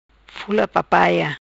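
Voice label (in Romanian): "Fula Papaia", Macanese Patuá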